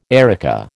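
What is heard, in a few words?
"erica", American English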